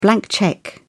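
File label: "blank cheque", British English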